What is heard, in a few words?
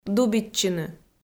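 "дубитчыны", Komi, female; kpv audio dict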